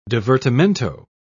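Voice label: "divertimento", British English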